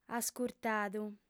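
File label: "ascurtadu", Sardinian, female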